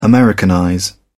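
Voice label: "americanize", English, British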